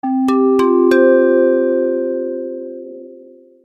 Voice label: airport announcement; ringtones